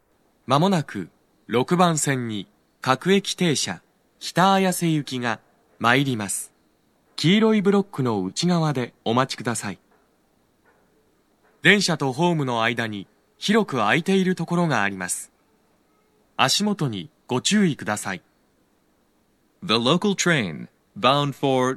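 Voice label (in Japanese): 6番線 接近放送 男声, 大手町駅; 放送は「各駅停車 北綾瀬行」です。; 綾瀬・北綾瀬・取手方面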